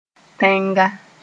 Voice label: "tẽnga", Moore; land

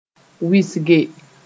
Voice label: "wɩsge", moore

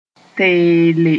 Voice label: "teele", moore

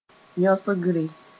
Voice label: "yõsgre", moore

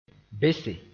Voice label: "bese", moore

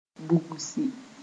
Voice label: "bugsi", moore

